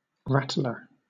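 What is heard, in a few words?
"rattler", British English; pronunciations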